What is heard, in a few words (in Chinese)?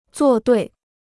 "作对", Chinese